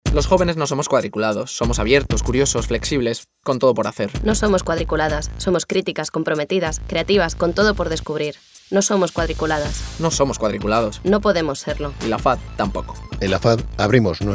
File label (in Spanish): Cuña; Mentes Cuadradas